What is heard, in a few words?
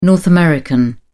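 "north american", British English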